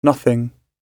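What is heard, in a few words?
"nothing", British English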